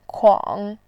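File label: "kuang3", Mandarin Chinese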